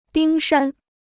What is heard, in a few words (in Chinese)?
"冰山", Chinese